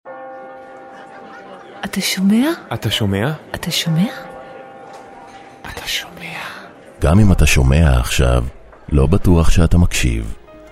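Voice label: hebrew male voice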